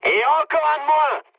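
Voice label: French player killed enemy mood high; War Thunder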